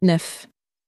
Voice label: "neuf", French

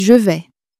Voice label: "je vais", French

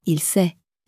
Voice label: "il sait", French

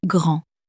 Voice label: "grand", French

